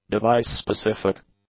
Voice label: "device-specific", english; adjectives